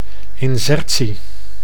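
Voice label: "insertie", Dutch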